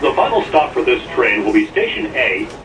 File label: subway final stop station, transit terminal announcements; VOCALS and SPOKEN WORD